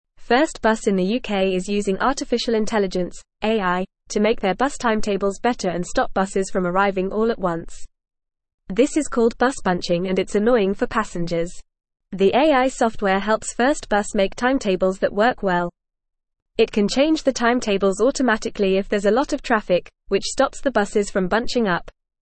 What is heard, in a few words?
English Upper Intermediate FAST Reading; English Newsroom; First Bus Uses AI to Improve Punctuality and Effici